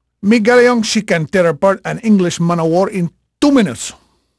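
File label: Spanish male, voice; Pirates Of The Caribbean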